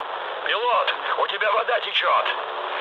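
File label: Russian damaged water mood low, speech; War Thunder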